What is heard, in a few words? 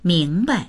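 "ming2bai", Mandarin Chinese; 明白; 明白な、わかる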